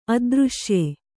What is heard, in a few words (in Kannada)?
"ಅದೃಶ್ಯ", kannada